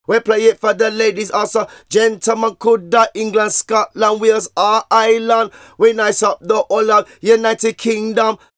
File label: Vocals; Jungle Frenzy 1